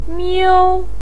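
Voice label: "miu" first tone, Mandarin Chinese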